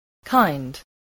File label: "kind", English